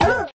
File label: "ooh" cartoon